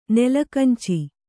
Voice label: "ನೆಲಕಂಚಿ", Kannada; nela kanci; its fruit; shrub orange.

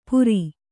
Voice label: "ಪುರಿ", Kannada

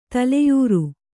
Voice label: "ತಲೆಯರಿ", Kannada

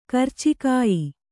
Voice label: "ಕರಚಿಕಾಯಿ", Kannada

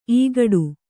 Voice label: "ಇಕ್ಕೋಡು", Kannada

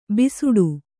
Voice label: "ಭಿಸ್ಸಟೆ", Kannada

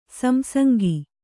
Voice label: "ಸಂಸಂಗ", Kannada

